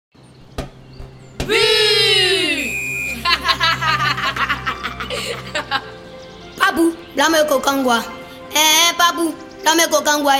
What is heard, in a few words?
baoule